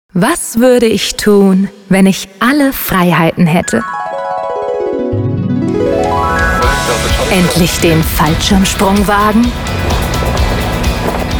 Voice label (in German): SprecherIn